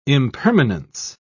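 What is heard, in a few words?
"impermanence", British English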